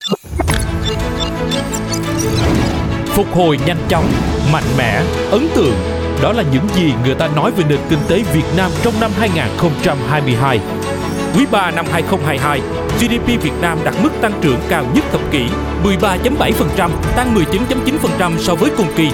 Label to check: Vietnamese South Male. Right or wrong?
Right